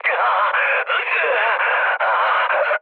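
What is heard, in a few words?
Korean damaged pilot mood med, speech; War Thunder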